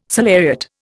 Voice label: "salariat", Indian

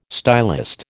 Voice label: "stylist", American

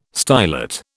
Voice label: "stylet", British

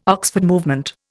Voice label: "Oxford Movement", Indian

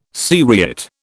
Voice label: "seriate", British

